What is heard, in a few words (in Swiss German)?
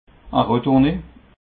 "retourne", alsace